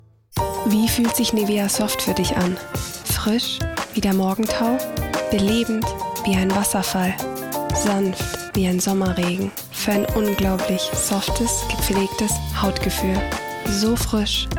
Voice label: German